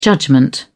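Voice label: "judgment", British English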